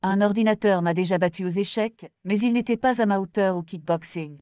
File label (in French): french fr-fr; siwis; hooligan; Instant Language Dubbing